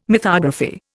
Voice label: "mythography", Indian

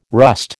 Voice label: "rust", American

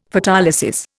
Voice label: "Photolysis", Indian; Dictionary

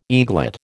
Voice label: "eaglet", American

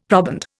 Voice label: "proband", Indian; Dictionary

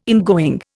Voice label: "ingoing", Indian

